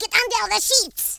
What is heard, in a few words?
take cover, French Lover; speech